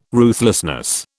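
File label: "ruthlessness", British English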